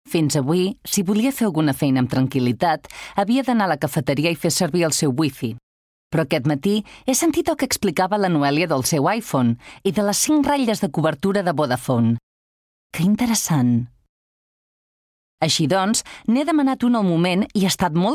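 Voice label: Catalan, General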